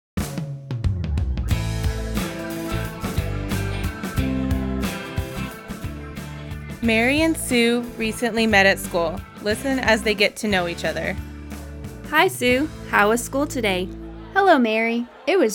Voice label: Conversation, English; Unit Two